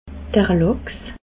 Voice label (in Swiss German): "lachs", alsace